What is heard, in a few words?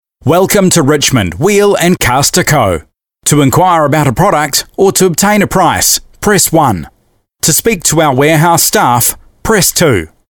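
New Zealand phone voice, male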